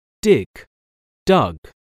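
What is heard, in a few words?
"dig", English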